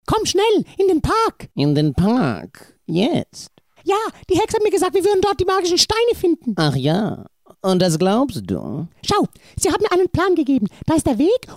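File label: German Characters; GoLocalise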